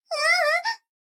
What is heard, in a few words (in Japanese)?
女の子 台詞